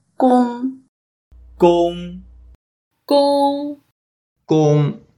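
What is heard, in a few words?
"gong1", Mandarin Chinese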